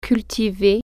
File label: "grow", French